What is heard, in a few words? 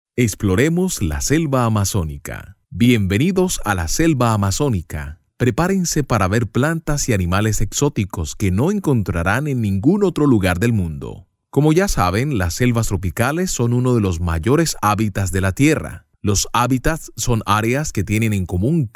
spanish latin american documentaries